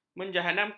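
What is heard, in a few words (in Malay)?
"menjahanamkan", Malay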